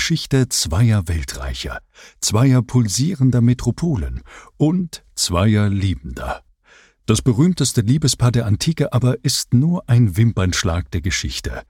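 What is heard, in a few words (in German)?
Hörprobe Audioguide Museum; Museum Speyer; Caesar und Kleopatra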